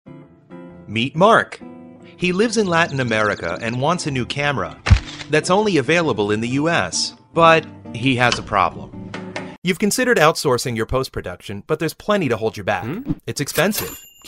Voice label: explainer